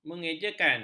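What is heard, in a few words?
"mengejakan", Malay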